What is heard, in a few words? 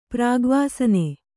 "ಪ್ರಾಗ್ವಾಸನೆ", kannada